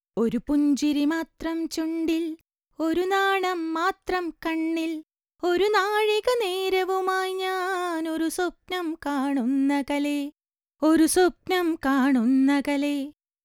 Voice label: 90 BPM Female